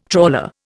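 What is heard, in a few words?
"trawler", Indian English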